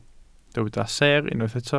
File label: "seer", Welsh